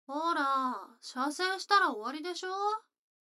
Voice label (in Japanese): 女の子 台詞